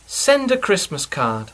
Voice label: send a christmas card, English

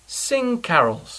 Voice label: sing carols, English

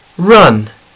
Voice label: "run", English; sport a hry

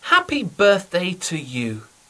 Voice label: happy birthday to you, English

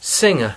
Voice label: "singer", English

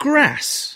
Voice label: "grass", English

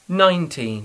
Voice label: "nineteen", English

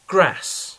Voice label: "grass", English

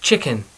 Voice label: "chicken", English; domaci zvirata

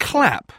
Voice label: "clap", English; numbers and verbs

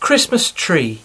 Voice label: christmas tree, English

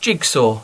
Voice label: "jigsaw", English; hracky

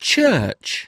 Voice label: "church", English

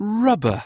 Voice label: "rubber", English

